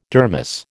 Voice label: "dermis", American